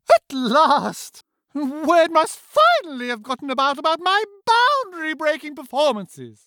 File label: Upbeat Believable British Drag Queen; Character / Cartoon; Henrietta Hairspray